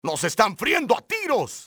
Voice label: under fire, spanish soldier; enemy; XBOX